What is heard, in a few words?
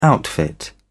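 "outfit", British English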